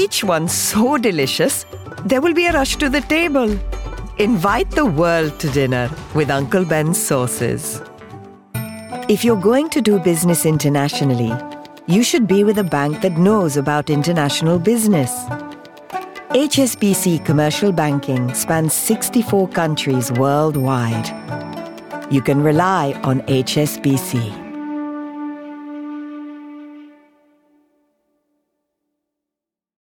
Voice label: indian commercials

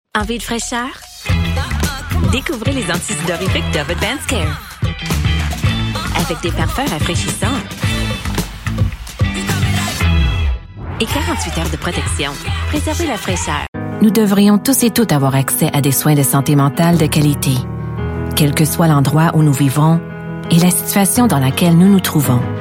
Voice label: French

